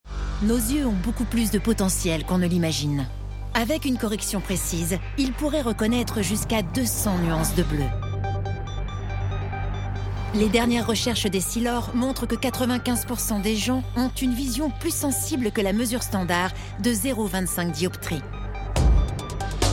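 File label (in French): Droite energique, voix off féminine; Convention Essilor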